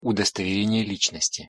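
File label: "udostoverenie licnosti", Russian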